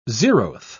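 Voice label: "zeroth", British English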